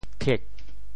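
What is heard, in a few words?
"thiek4", Teochew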